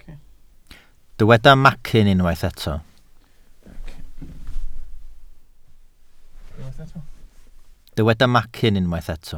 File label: "macyn", Welsh